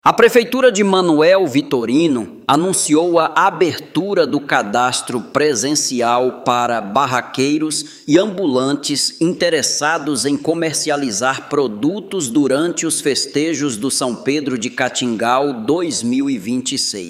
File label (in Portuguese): Locutor; São Pedro de Catingal 2026